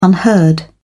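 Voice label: "unheard", British English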